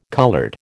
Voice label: "coloured", American

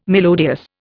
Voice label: "melodious", Indian

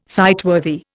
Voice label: "sightworthy", Indian

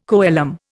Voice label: "coelom", Indian

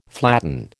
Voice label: "flatten", American

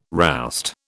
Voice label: "roust", British

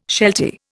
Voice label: "sheltie", Indian

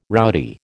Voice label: "rowdy", American

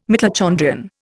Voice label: "mitochondrion", Indian

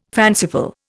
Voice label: "fanciful", Indian

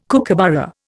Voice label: "kookaburra", Indian

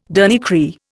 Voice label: "dernier cri", Indian

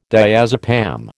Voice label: "diazepam", American

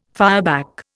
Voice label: "fireback", Indian

